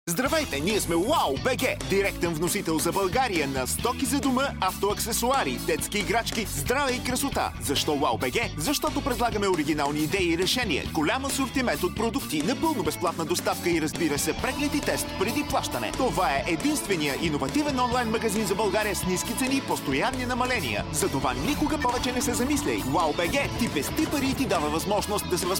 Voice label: bulgarian podcasts